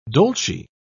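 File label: "dolce", British English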